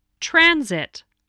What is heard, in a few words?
"transit", voice